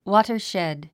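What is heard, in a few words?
"watershed", English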